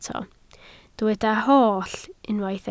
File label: "holl", Welsh